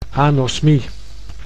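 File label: "anosmie", Dutch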